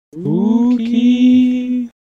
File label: Spooky